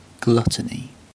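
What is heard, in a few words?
"gluttony", British English; pronunciations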